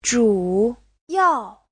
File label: "zhu3yao4", chinese; 主要; (tính từ) chủ yếu; Giáo trình chuẩn HSK3